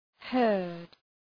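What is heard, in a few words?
"heard", English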